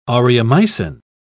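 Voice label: "aureomycin", British English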